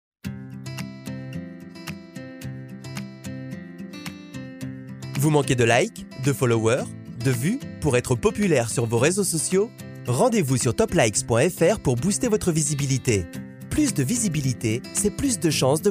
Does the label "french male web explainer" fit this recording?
Yes